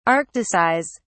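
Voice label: "arcticize", English, British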